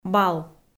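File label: "бал", Komi, female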